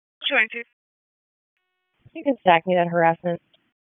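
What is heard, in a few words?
Police District Dispatch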